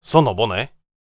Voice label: warrior conversation emotion question